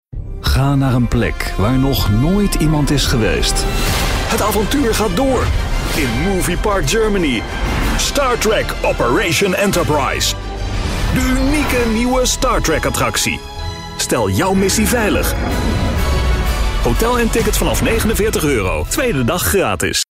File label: medium sell TVC; Startrek